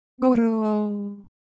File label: Ewe, ideophones; Shape